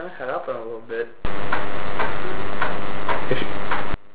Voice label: female whisper enhanced